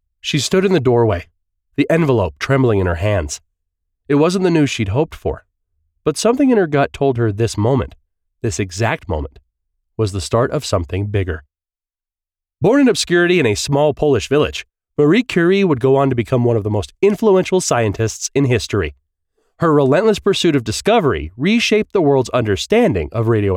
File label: Audiobook Narration